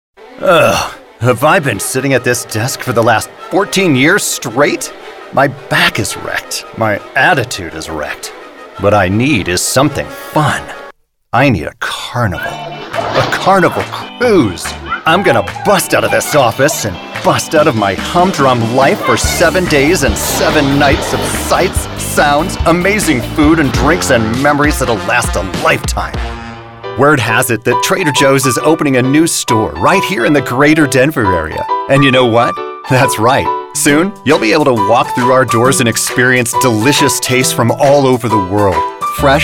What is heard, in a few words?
commercial